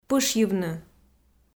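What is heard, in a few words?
"пышйывны", Komi, female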